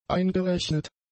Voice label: "eingerechnet", German; adjectives